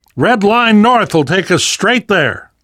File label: world hospital, namvet, survivor voice